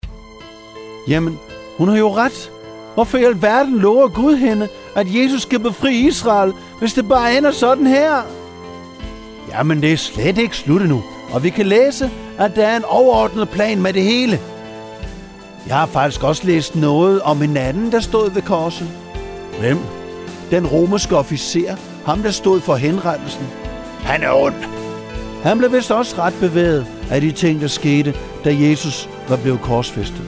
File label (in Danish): Officeren drama med speak